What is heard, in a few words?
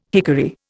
"hickory", Indian